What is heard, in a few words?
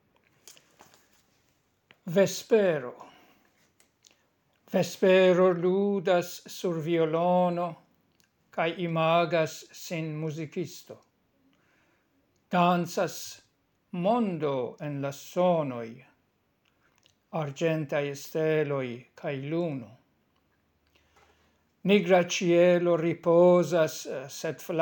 Vespero prononciation accent; SATB a cappella